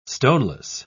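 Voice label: "stoneless", English, British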